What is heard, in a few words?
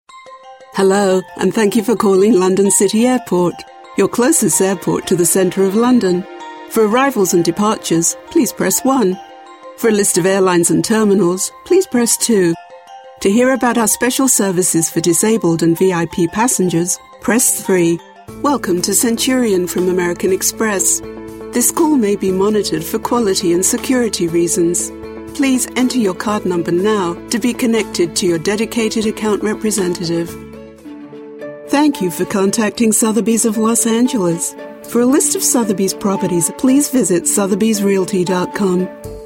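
english british interactive voice response; British-American voice artist located in Los Angeles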